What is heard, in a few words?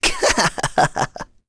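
vox Happy, English; Ezekiel; kings raid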